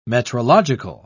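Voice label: "metrological", English, British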